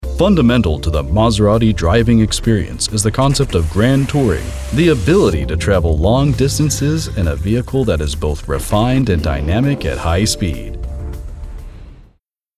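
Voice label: E-Learning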